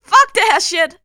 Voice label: Panic; zps; Humans; HumanLea

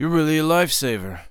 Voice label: Thanks, Human Male; zps; HumanMale-01

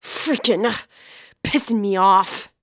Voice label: Waitress Anger; zps

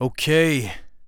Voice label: Acknowledge, Human Male; zps; HumanMale-01

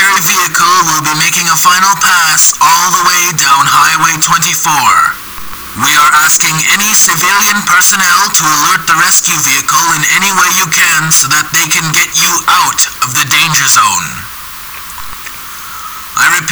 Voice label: cheque broadcast; zps; harvest

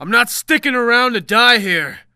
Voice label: Escape, Human Male; zps; HumanMale-01

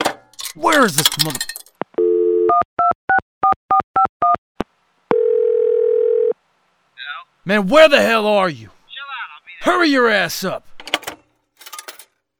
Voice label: Phone, Human Male; zps; HumanMale-01

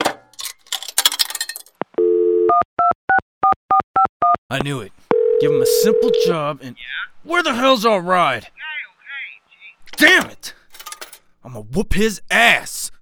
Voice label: Phone, Human Male; zps; HumanMale-01

